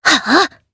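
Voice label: Casting, Japanese; Sonia; kings raid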